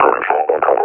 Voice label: announce attack cover, officer; Half Life Alyx; Half Life Model Pack